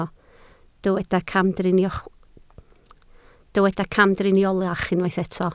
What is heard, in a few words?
"camdriniolach", Welsh